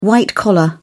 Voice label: "white-collar", uk

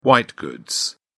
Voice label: "white goods", uk

